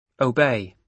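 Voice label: "obey", British English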